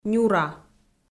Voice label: "нюра", Komi

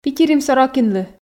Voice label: "питирим сорокинлы", f

